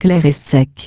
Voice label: clear and dry; SvxLink; fr FR; MetarInfo